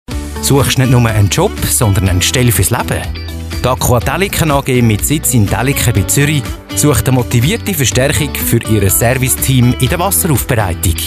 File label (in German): Werbung Stellenangebot; Aqua AG